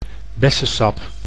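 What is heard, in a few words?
"bessesap", Dutch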